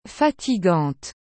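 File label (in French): "fatigante", French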